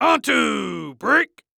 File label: Human Voice Male Young Man Football Quarterback On Two Break; Sports; Adobe; TTRPG